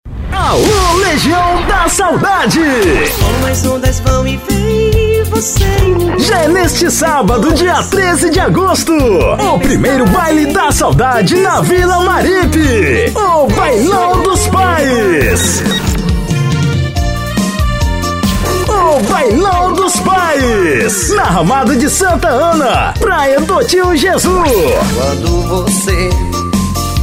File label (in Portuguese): LOCUTOR